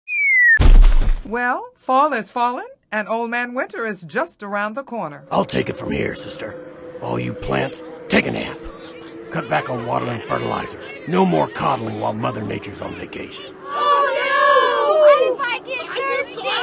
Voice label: commercial